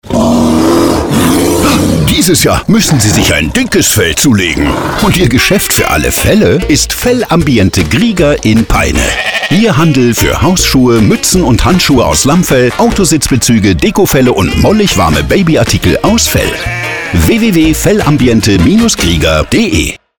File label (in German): Radiospot